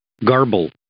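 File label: "garble", English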